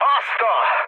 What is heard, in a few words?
trick, speech hun; War Thunder